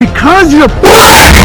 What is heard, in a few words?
Earrape